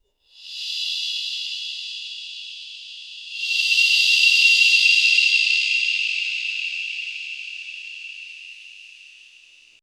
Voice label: distant corridor whisper